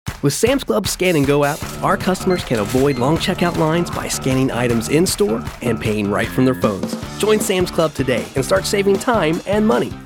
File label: Commerical